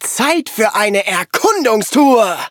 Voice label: loading, de; cookie run